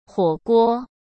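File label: "火锅", Mandarin Chinese; hsk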